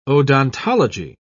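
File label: "odontology", English, British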